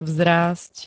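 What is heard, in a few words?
"vzrásť", Slovak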